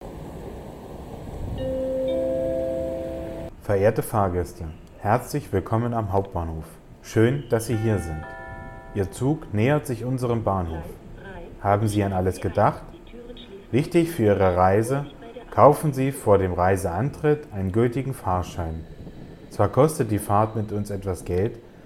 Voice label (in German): horspiel; 3 adventssonntag